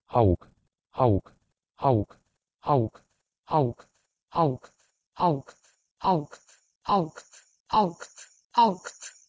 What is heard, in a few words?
Latvian augt; PIE h2eug